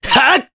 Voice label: Attack, jp; Mitra; kings raid

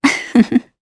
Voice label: Vox Happy, jp; Valance; kings raid

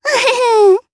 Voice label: Happy, jp; Rodina; kings raid

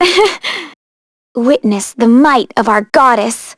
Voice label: Vox Victory, en; Maria; kingsraid; voices heroes en

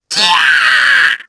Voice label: Dead, jp; Lakrak; kingsraid

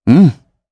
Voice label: Vox Human, jp; heroes; Shakmeh; kingsraid

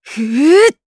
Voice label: Casting Madness, jp; Xerah; Kings Raid

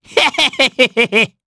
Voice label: Vox Happy, jp; Bernheim; kingsraid

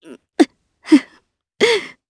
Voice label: Vox Sad, jp; Nicky; kings raid

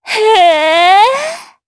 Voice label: Vox Happy, jp; DarkFrey; kingsraid

